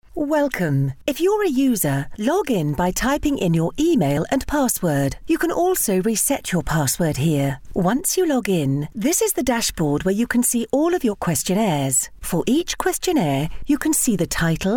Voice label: english british e learning

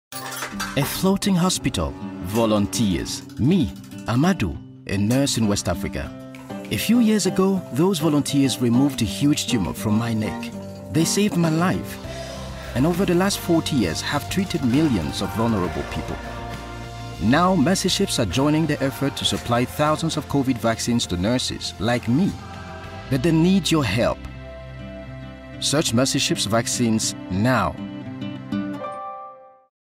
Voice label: english african commercial

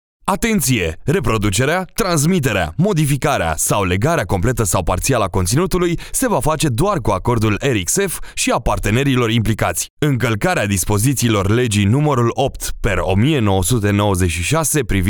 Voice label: romanian corporate videos

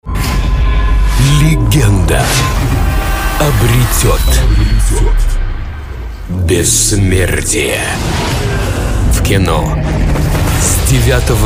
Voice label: russian movie trailers

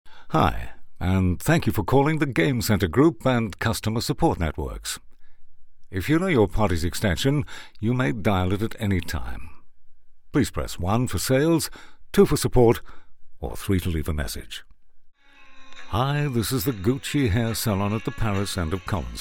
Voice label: english scottish ivr

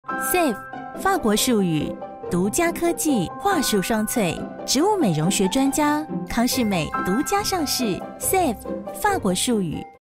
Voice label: chinese mandarin commercial; Taiwan

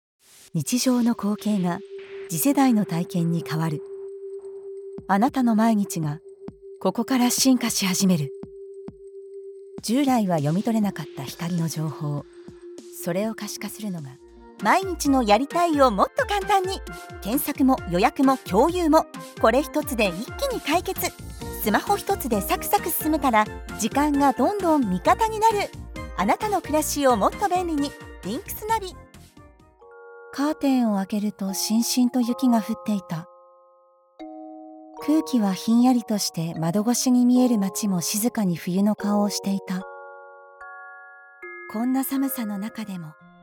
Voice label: japanese commercial

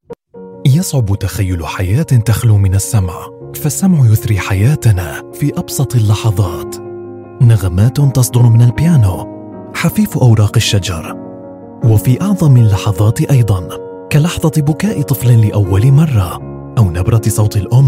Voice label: arabic audiobooks

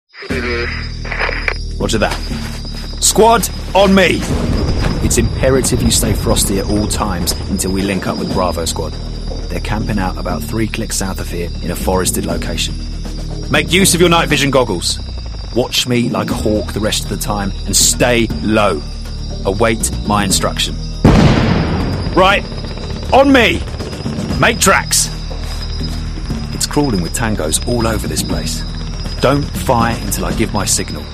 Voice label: english british video games; locutor de doblaje en inglés (inglés del sur británico)

